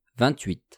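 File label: "vingt-huit", French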